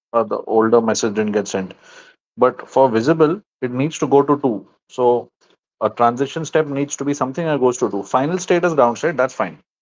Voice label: voice message